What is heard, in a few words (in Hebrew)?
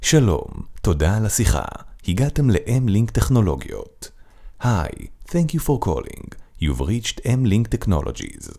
קריין אנגלית ועברית